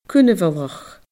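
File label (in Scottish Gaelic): "cunbhalach", Scottish Gaelic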